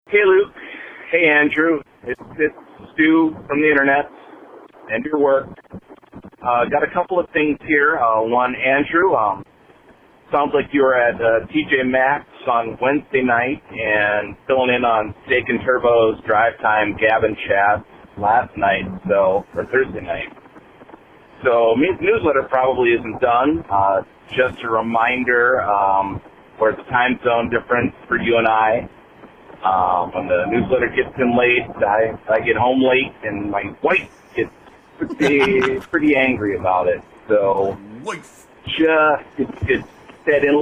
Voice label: voicemail message

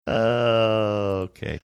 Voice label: drawn out okay

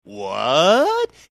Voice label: drawn out "what"

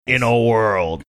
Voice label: saying in a world movie trailer voiceover

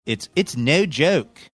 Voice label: saying it's no joke pennsylvania philly

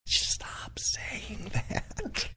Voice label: whispering

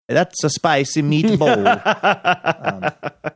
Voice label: saying thats a spicy meat bowl bad italian accent

